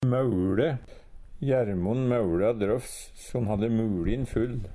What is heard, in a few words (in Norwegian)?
maule, Numedalsmål; Verb; Hushald, mat, drikke